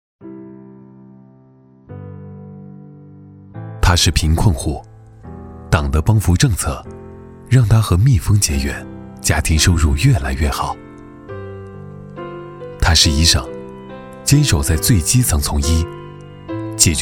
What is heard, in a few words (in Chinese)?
男 人物专题 情感深情